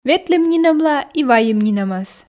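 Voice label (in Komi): "ветлім нинӧмла и вайим нинӧмӧс", Komi, female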